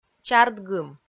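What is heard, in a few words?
"чард-гым", Komi, female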